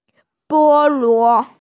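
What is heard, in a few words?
"bo luo", Mandarin Chinese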